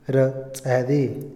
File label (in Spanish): fortaleza, Otomí, hombre; INALI